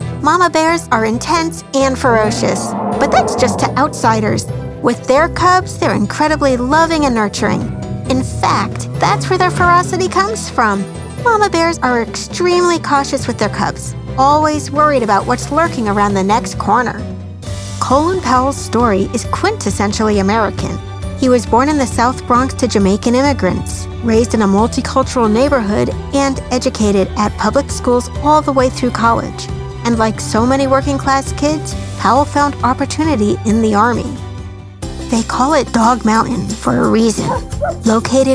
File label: narration